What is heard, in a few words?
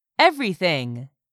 "everything", English; 3000 words